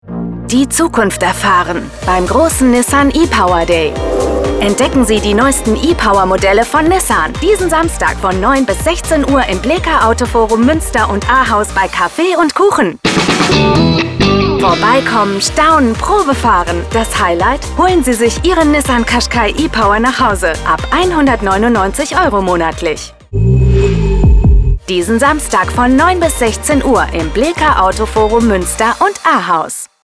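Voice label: german automotive, Female; professional German voiceover artist and voice actor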